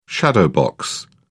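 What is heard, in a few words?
"shadowbox", British English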